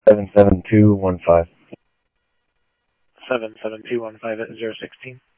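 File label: Mesa Simulcast Police Department; MESA PD 1 Fiesta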